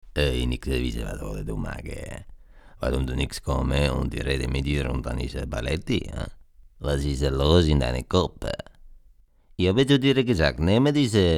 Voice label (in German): italienisch